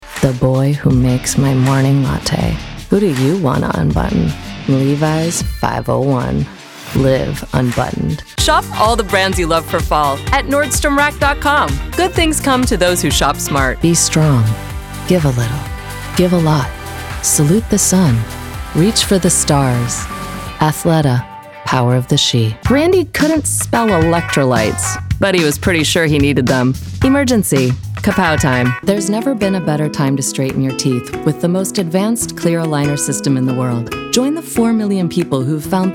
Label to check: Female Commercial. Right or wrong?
Right